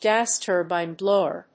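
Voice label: "gas turbine blower", English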